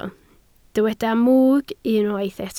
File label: "mwg", Welsh